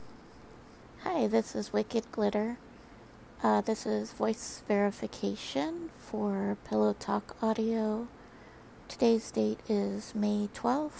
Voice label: Verification, F; Pillowtalk

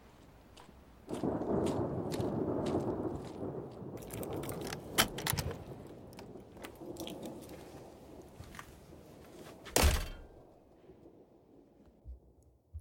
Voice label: Rain Ambience, Relaxing, Comforting; F4M; GFE

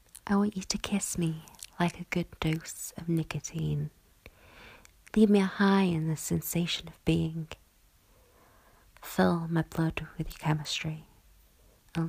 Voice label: Erotic Poem; Non Explicit; Similies An Erotic Poem; F4A

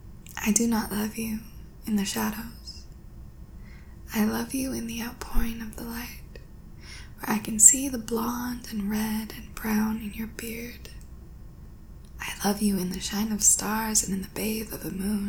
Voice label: F, Poetry, Raspy Voice; Lights

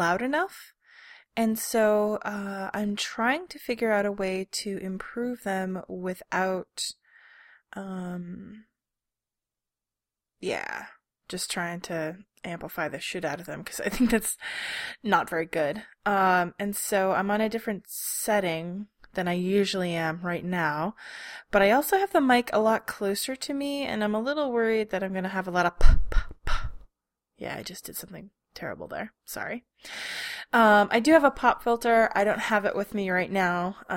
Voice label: Mic Settings Test testing testing

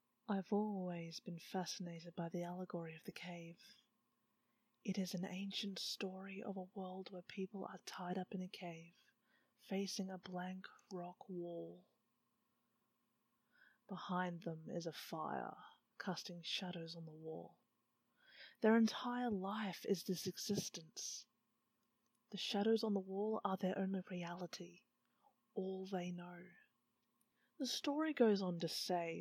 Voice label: Hypnosis, conditioning; F4M; Allegory of the Cave; intimate; mind games; after effects; induction; training

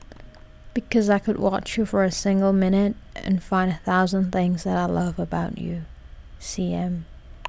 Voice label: reading

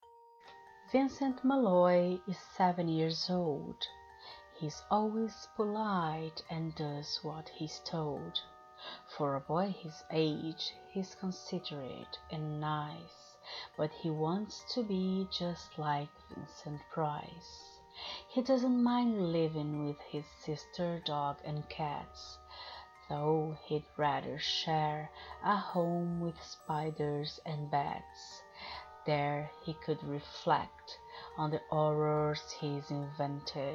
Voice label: Poetry reading, Sfx, Brazilian accent; Vincent Malloy

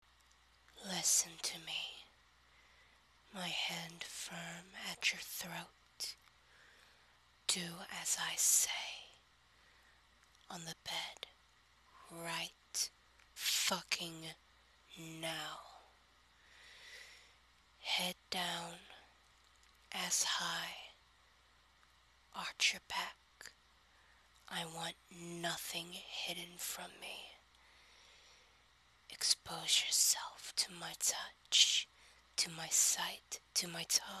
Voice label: Erotic poetry, Fdom, Leather belt, Stern, Tender; My Good Girl

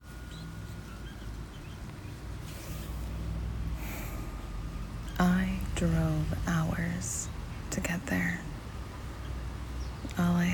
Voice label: Narrative; F4A; The Siren s Awakening; Summer Nights

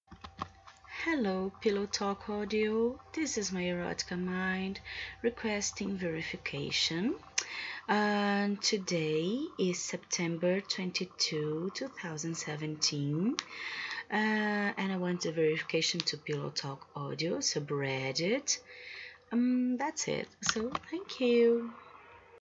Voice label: [F] Pillow Talk [Brazilian accent]

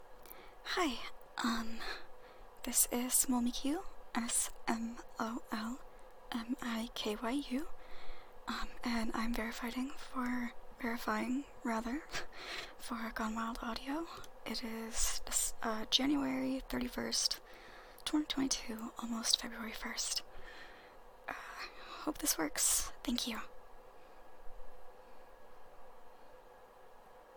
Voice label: F4A, Verification

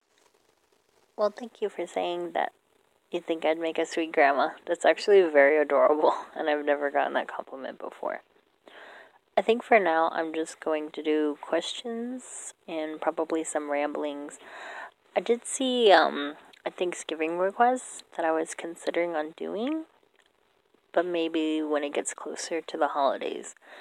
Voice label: Gibberish; response; grandma; compliment

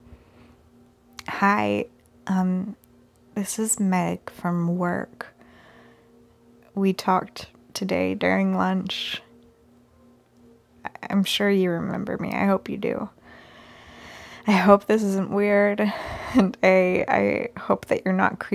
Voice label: Voicemail

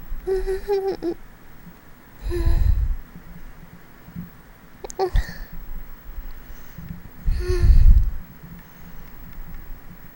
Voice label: Soft voice, heavy breathing, soft noises; occasional whispers, rambles at end; Afternoon Arousal Means Release

